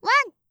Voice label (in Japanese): "ワン", カウント